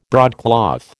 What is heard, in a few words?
"broadcloth", American